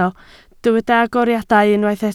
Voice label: "agoriadau", Welsh

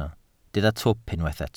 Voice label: "twp", Welsh

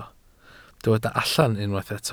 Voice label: "allan", Welsh

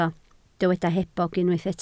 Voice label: "hebog", Welsh

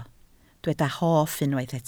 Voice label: "hoff", Welsh

